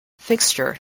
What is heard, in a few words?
"fixture", spelling